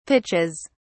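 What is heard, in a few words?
"pitches", British English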